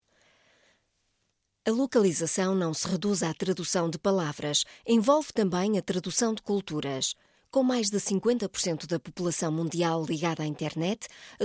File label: portuguese europe female